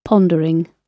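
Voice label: "pondering", British English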